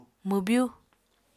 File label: "mwbyu", Apatani